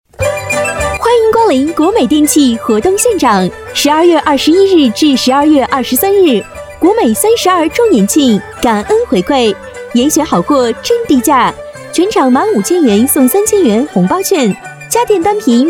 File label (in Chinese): 女 促销; 国美电器